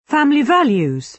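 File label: "family values", British English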